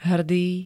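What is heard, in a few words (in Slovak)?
"hrdý", Slovak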